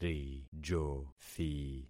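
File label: "rijothi", concatenated syllables; Affixing experiment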